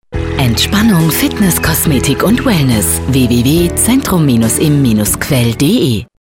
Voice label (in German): Radiospot